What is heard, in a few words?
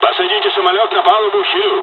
landing, dialogs russian; midway; War Thunder